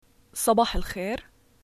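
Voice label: "good morning", Syrian Arabic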